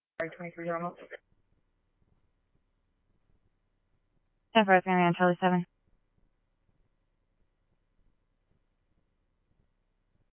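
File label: scanner, Phoenix Police Department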